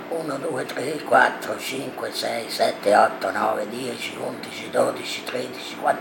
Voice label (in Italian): voce valvola fonatoria